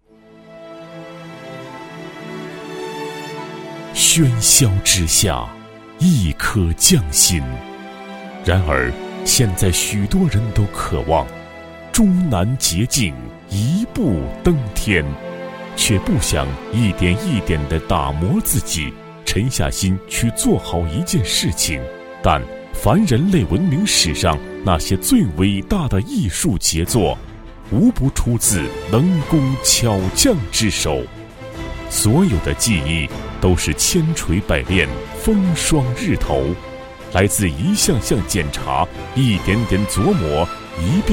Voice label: 男 专题 宣传, 国语男声配音; 克莱氏匠心

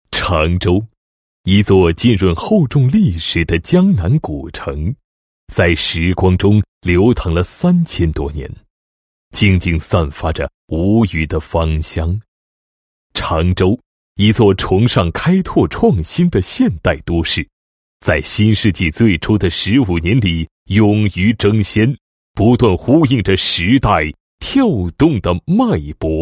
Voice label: 男 宣传片; 常州机场宣传片

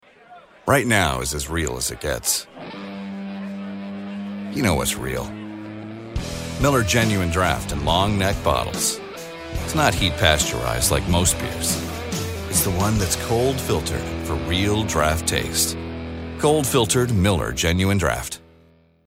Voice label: 外籍男, 商业广告, Commercial; Miller Genuine Draft

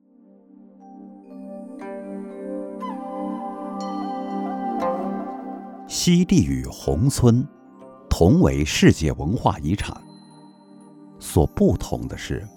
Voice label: 旅游景点纪录片; 宏村; 最美古镇古村

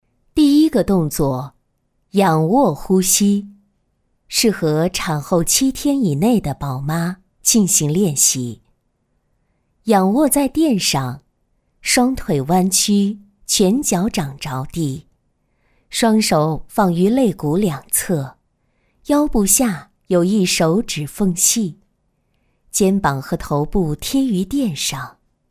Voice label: 女-旁白-舒缓宁静; 瑜伽类

